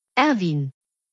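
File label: "Erwin", German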